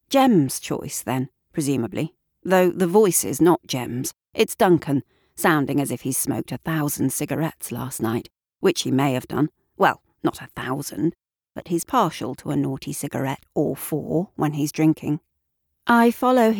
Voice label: British English RP Fiction Thriller First Person

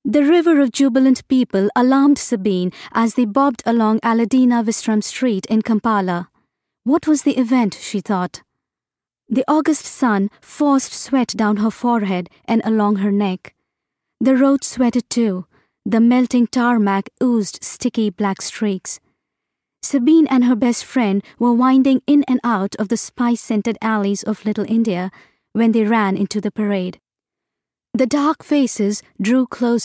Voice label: Audiobook Fiction; Child of Dandelions

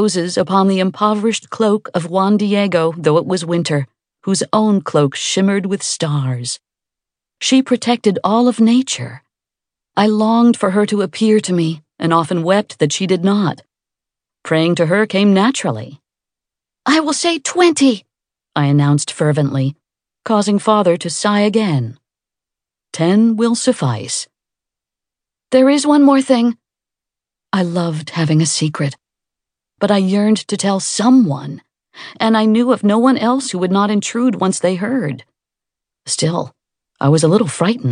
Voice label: 1st P narr child M dialogue

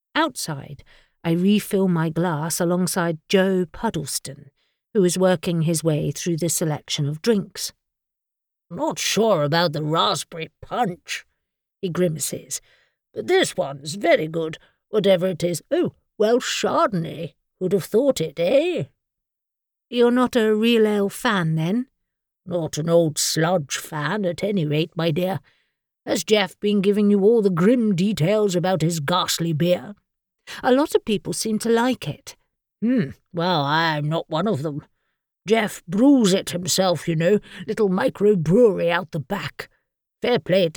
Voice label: Cozy British Comedy Romance 1st person

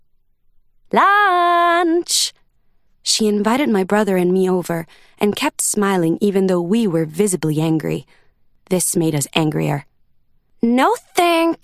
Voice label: Literary Fiction Italian Accent MF Dialogue

